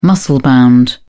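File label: "muscle-bound", British English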